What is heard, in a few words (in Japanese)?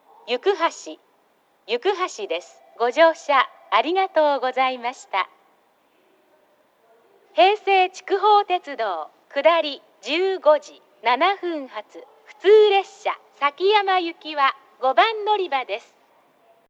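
到着放送＋乗換え放送, 女声, トーンが低くなっています; 行橋; 平成筑豊鉄道・崎山行き乗換え; 放送更新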